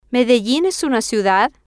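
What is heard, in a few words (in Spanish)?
Medellin es una ciudad, Spanish, female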